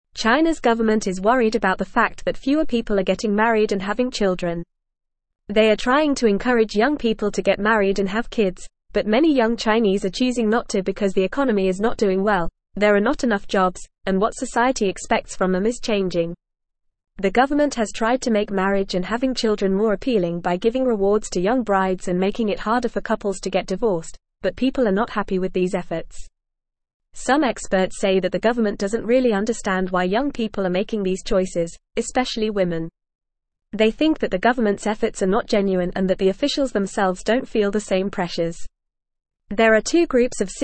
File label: English Upper Intermediate FAST Reading; Chinas Declining Marriage and Birth Rates Concerns; English Newsroom